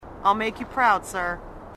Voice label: female marine, sassy